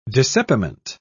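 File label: "dissepiment", British English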